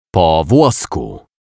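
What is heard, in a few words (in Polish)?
"po wlosku", Polish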